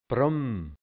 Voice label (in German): "Brumm", Burgenland Dialekt hianzisch; Brunnen